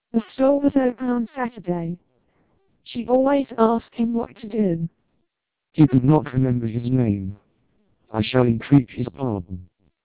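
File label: TWELP 400 bps Vocoder, British speech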